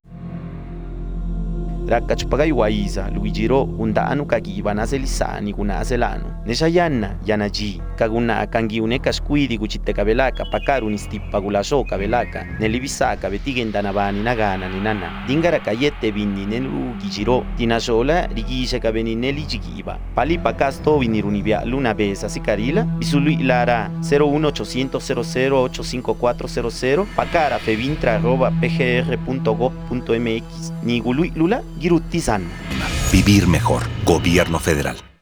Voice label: zapoteco de la planicie costera; Trata Sexual

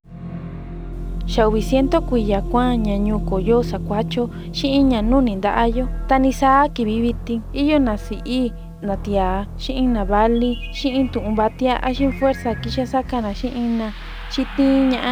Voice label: mixteco de guerrero del este medio; Trata Sexual

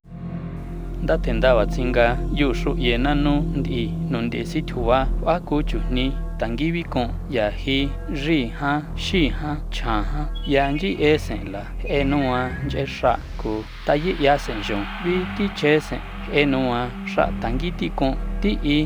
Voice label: popoloca del centro; Trata Laboral

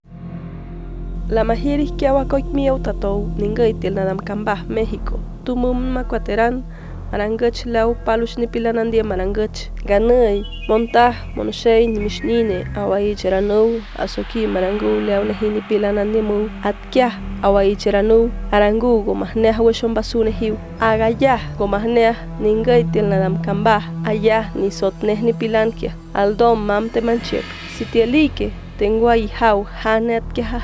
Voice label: huave del oeste; Trata Sexual

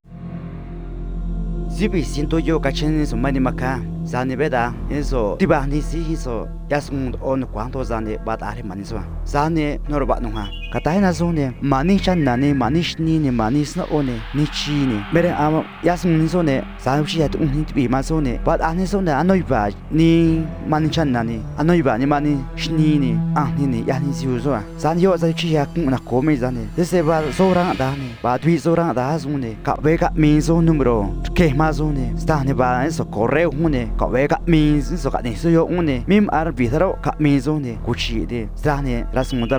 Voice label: trique de san juan copala; Trata Sexual

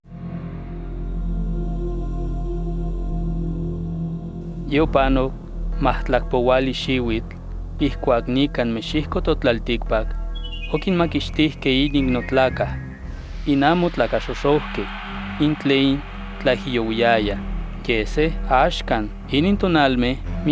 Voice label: nhuatl mexicano del centro alto; Trata Laboral

